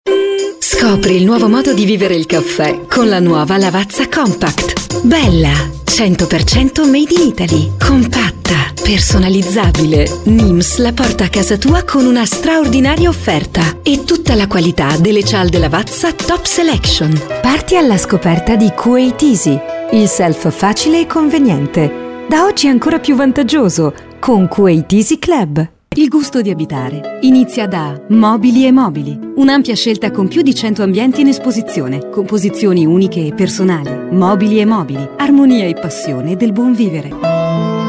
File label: spot